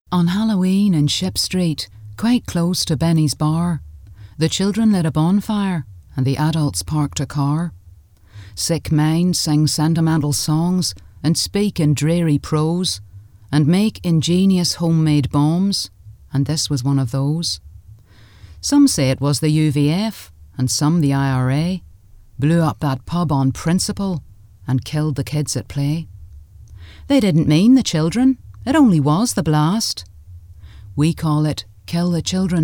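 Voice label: EN Poem Irish, Irish English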